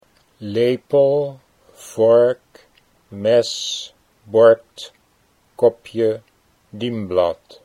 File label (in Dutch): "lepel vork", Dutch